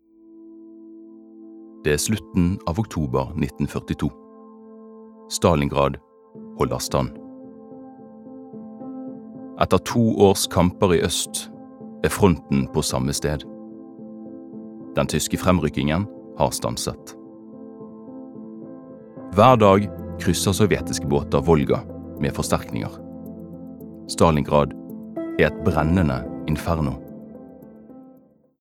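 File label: Norwegian dokumentar bergensk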